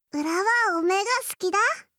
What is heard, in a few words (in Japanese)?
好きです 福井; imas; 伊織